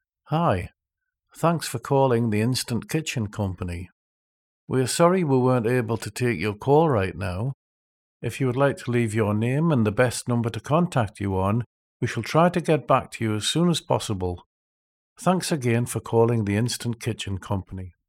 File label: Answer Sysem